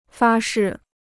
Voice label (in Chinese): "发誓", Chinese